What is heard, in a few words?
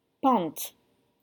"pente", French